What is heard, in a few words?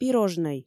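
"пирожнӧй", Komi, female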